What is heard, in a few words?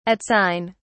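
"at sign", British English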